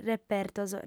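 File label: "repertos", female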